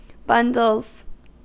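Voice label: "bundles", US English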